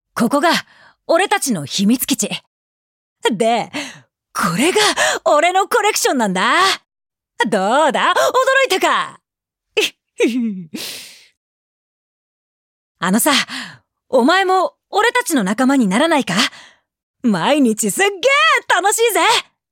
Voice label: shounen